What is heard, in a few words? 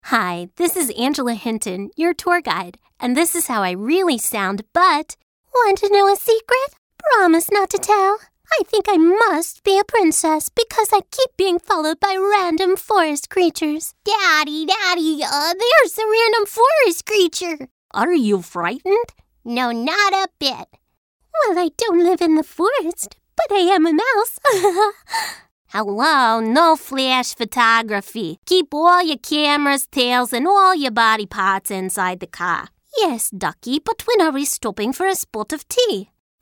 voice over cartoon voices